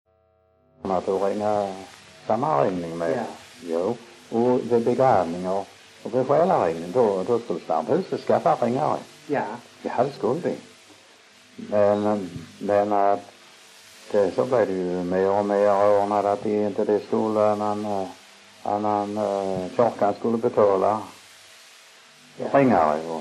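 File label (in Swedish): Munka-Ljungby Skåne, dialekter svenska sagor; Folkminnen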